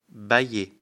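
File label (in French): "bailler", French; afrikalan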